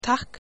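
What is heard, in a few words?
thanks, Faroese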